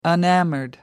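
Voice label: "enamored", British English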